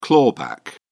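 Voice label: "clawback", English, uk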